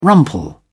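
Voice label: "rumple", English, British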